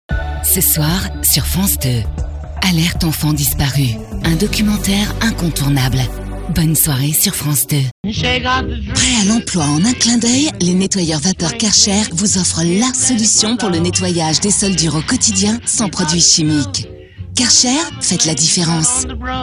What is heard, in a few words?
french commercial